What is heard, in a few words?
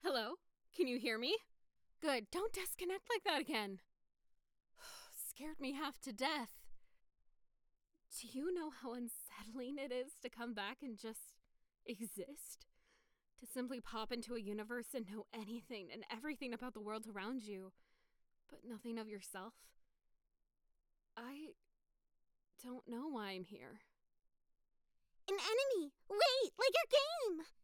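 Character, voiceover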